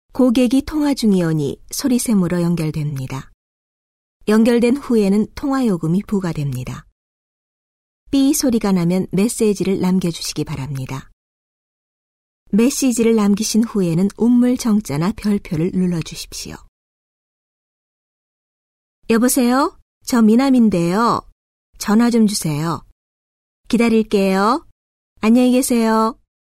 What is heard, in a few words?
kor; MediaVoix; vice sample